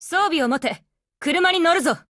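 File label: formation, Japanese; APC556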